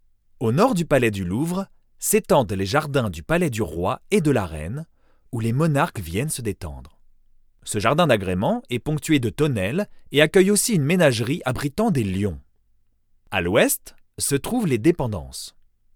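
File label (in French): audioguide medium pose, voix off masculine; Je peux adopter tous les styles avec ma voix off masculine naturelle, posée, souriante ou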